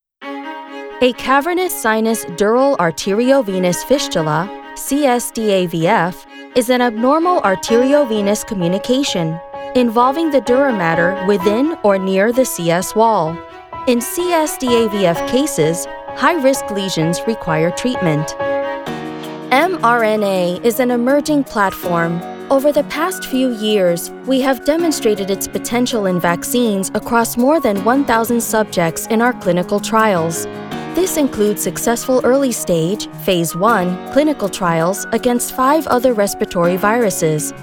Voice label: MEDICAL NARRATION

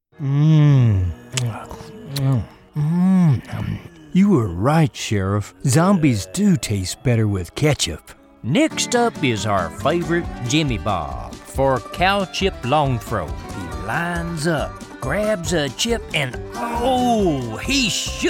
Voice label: Animation

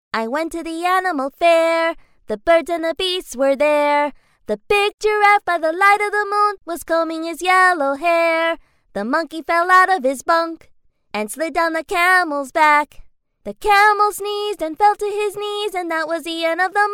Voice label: Animation

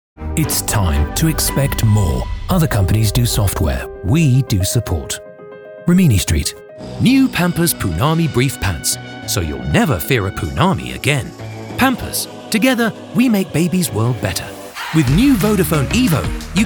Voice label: Commercials

